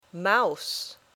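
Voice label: "mouse", English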